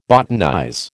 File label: "botanize", American